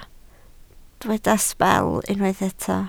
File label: "sbel", Welsh